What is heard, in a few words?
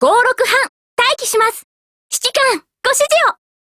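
HELLO, Japanese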